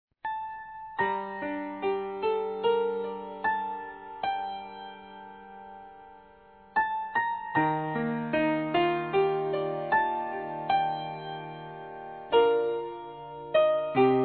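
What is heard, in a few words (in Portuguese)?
PARABÉNS MAMÃE, feminino, Telemensagens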